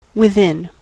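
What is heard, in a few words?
"within", US English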